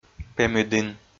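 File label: "пемыдін", Komi, male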